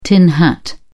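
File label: "tin hat", British English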